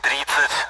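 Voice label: Speech Sound Russian Common AWACS Numbers; DCS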